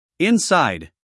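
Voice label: "inside", American English, male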